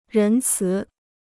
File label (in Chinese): "仁慈", Chinese